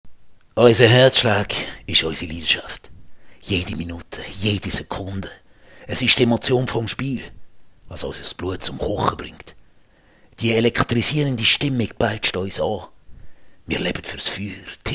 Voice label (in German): Schwyzerduetsch; Fremdsprache; stark / kämpferisch